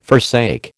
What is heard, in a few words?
"forsake", American